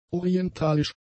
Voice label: "orientalisch", German; adjectives